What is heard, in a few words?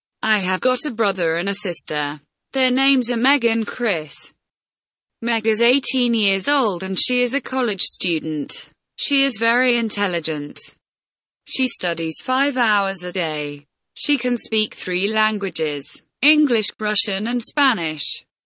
simple present tense reading